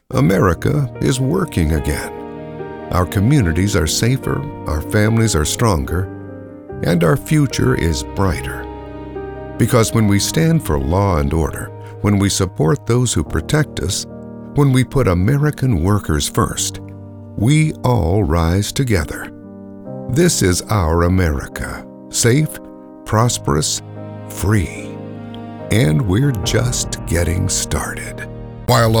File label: english international political ads; actor experimentado de voz y cámara